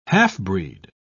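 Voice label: "half-breed", British English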